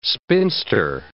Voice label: "spinster", English

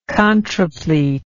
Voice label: "contrapletal", English

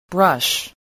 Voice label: "brush", spelling

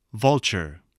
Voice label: "vulture", spelling

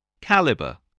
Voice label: "caliber", spelling

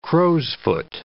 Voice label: "crow's-foot", english

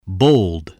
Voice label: "bold", spelling

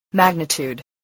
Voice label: "magnitude", spelling voice

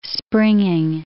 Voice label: "springing", English

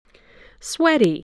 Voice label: "sweaty", English

